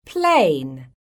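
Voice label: "PLANE", English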